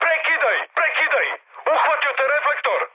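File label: Serbian searchlite mood high; War Thunder; speech srb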